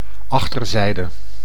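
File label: "achterzijde", Dutch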